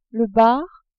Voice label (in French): "bar", french